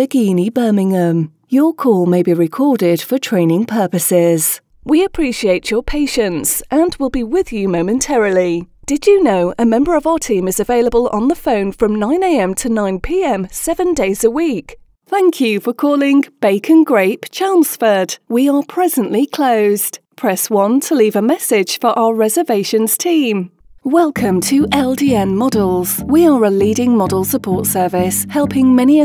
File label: TELEPHONE MUSIC ON HOLD, קריינות 3 אנגלית